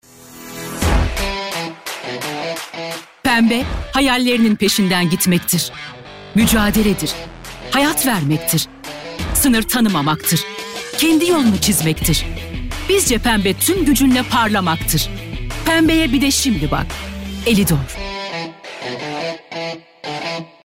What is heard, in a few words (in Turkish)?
türkçe seslendirme